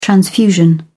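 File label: "transfusion", British English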